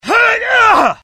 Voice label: anger, dialogue IT; mohaa; attack

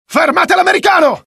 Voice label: alert, dialogue IT; mohaa; attack

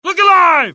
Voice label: alert, dialogue generic US; mohaa

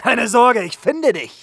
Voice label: curious; mohaa; dialogue

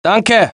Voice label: German; mohaa

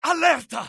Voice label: alert, dialogue IT; mohaa; attack

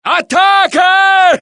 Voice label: Russian; mohaa

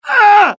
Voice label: death, UK; dialogue; mohaa

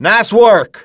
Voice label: impressed; dialogue; mohaa

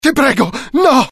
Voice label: fear, IT; mohaa; dialogue

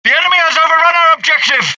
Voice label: british failure; dialogue; mohaa

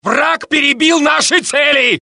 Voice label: Russian; mohaa; dialogue

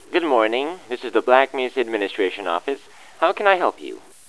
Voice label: hello, phone; xash3d fwgs; induction

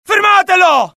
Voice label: alert, dialogue IT; mohaa; attack

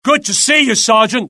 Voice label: alert, UK; mohaa; dialogue

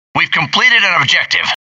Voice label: american success; dialogue; mohaa

